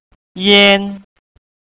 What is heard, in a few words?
"ian" Mandarin